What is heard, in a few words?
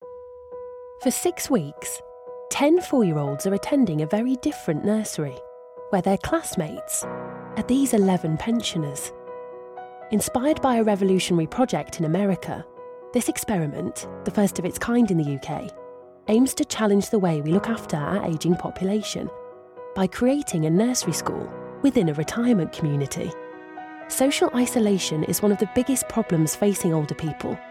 Documentary, voice-overs